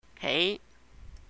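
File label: "Hei", Cantonese; rise, up; build; 起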